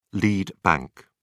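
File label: "lead bank", English, British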